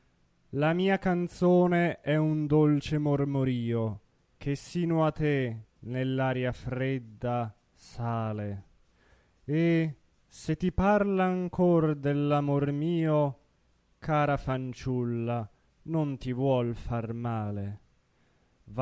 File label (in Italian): espressivo